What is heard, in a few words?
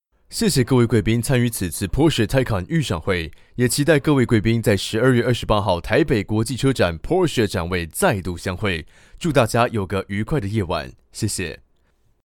Narration